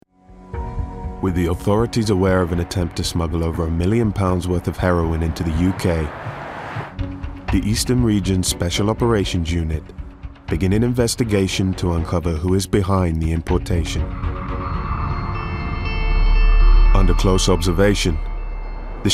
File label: Docu; 24 Hours